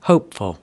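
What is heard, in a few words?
"hopeful", American English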